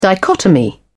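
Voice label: "dichotomy", British English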